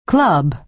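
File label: "club" American English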